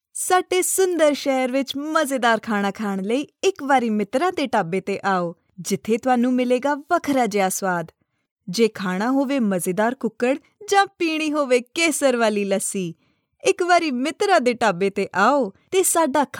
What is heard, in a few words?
female punjabi voice over narrative